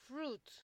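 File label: "fruit", Nigerian Pidgin, female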